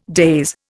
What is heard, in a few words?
"daze", Indian